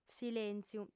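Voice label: "silèntziu", Sardinian, female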